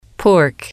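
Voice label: "pork", English, female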